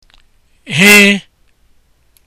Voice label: h letter, igbo; Hie; Sleep